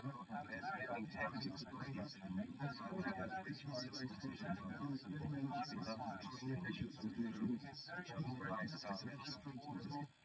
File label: male srec 130ms 20cm sim; SiSEC11; underdetermined